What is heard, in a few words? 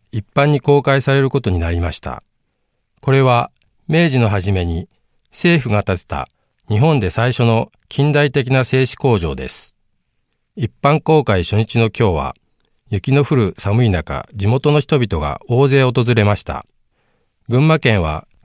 Radio Cultural Documentary